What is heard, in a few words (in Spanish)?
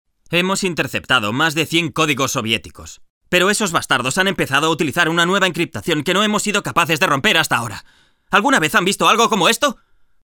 Masculino